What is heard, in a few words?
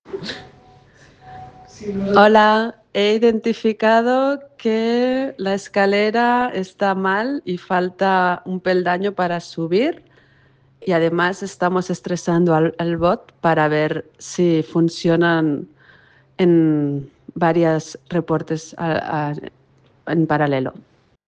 Voice message